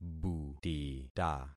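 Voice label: "butita", concatenated syllables; Affixing experiment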